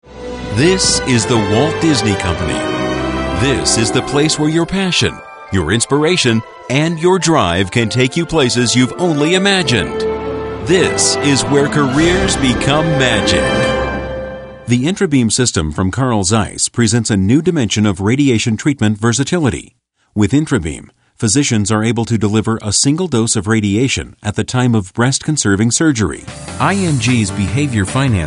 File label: sample english